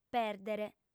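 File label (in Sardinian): "pèrdere", female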